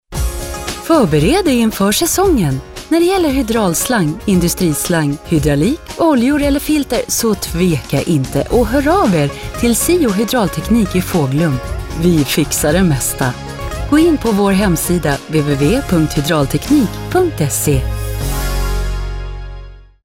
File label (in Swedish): reklam